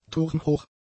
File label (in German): "turmhoch", German; adjectives